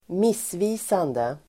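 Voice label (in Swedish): "missvisande", Swedish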